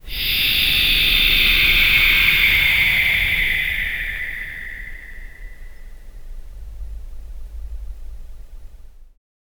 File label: a creepy porcelain doll s, doll